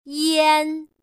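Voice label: "ian", Chinese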